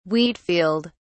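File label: "wheat field", British English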